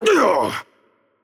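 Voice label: voice over, Brazilian Portuguese; Change Player; bloom minigame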